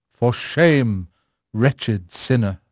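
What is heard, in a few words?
English male; Pirates Of The Caribbean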